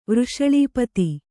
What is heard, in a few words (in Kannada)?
"ವೃಷಳೀಪತಿ", kannada